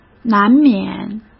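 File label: "难免", Mandarin Chinese; chinese flashcards; hsk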